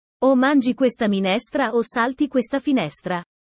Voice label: italian sayings about life